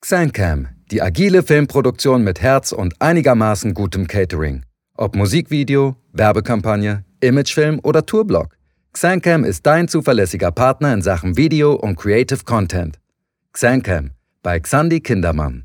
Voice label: Werbung jung

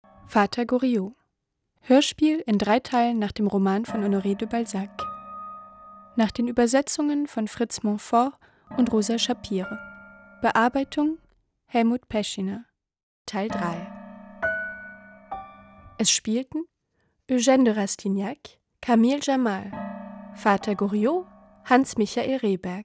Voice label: Stimmprobe Absage; DLF; LOFT VOICES

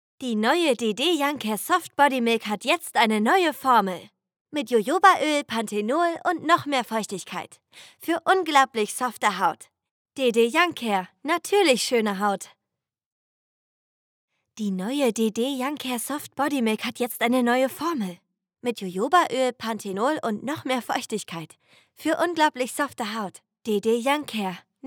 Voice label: Werbung 3 Varianten

